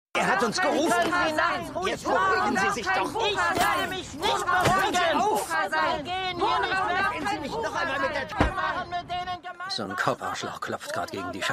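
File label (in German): Ältere Frau Menge, Stimmproben; Shameless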